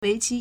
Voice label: "wei2qi1", Chinese

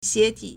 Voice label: "xie2ti3", Chinese

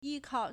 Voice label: "yi1kao4", Chinese

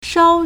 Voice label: "shao1", Chinese

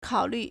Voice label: "kao3lv4", Chinese

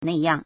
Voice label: "na4yang4", Chinese

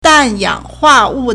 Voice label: "dan4 yang3 hua4 wu4", Chinese

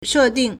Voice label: "she4ding4", Chinese

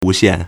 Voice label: "wu2xian4", Chinese

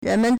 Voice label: "ren2 men", Chinese

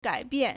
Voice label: "gai3 bian4", Chinese

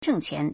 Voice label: "zheng4quan2", Chinese

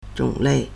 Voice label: "zhong3 lei4", Chinese

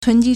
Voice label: "tun2ji1", Chinese

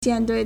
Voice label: "jian4 dui4", Chinese

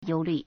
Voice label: "you1 lv4", Chinese

